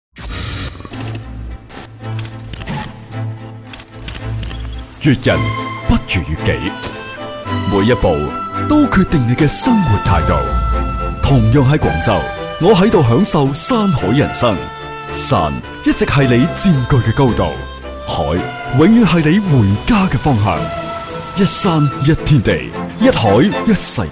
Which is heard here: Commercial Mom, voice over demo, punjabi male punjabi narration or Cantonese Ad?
Cantonese Ad